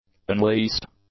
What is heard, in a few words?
"unleased", English; adjectives